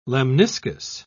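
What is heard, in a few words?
"lemniscus", British English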